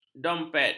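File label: "dompet", Malay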